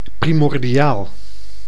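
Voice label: "primordiaal", Dutch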